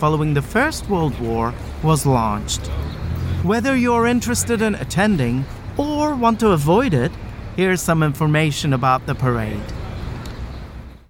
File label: turkish male continuity radio